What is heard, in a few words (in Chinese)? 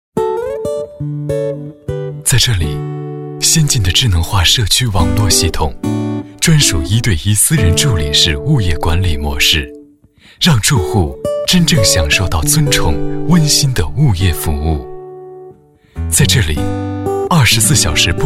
广告 地产; 东海路9号